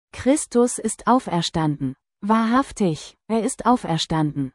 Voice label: Truly He Is Risen German; Christus ist auferstanden! Wahrhaftig, er ist auferstanden!; THE PASCHAL TROPARION; Great and Holy Pascha